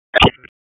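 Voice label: Raleigh Police Northeast District Tactical, p25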